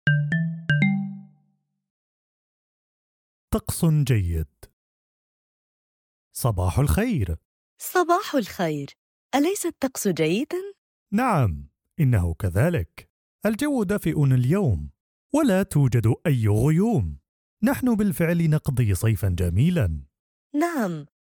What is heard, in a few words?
Arabic Small Talk Normal